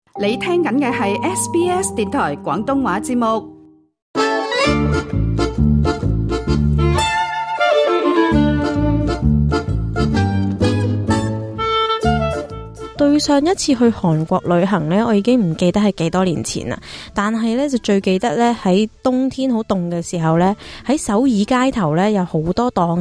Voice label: cantonese; 【甜鹹兼備】脆脆芝士拉絲韓式熱狗; 好煮意; SBS Chinese

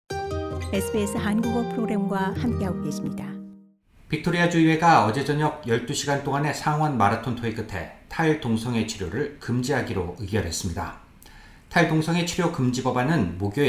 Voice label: audio news, Korean; SBS Korean; ‘탈 동성애 치료 금지 법안’, 목요일 저녁 빅토리아주 의회 통과

